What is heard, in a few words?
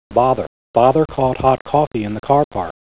bother re-recorded 8 bit